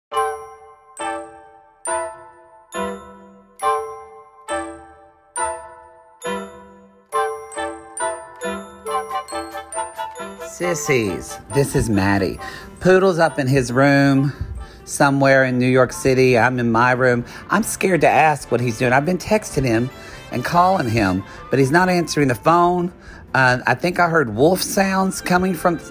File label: Announcement; NYC